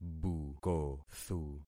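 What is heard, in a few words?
"bukothu", concatenated syllables; Affixing experiment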